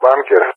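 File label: "bunker", English speech; Target; Allied Flight; DCS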